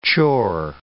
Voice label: "chore", English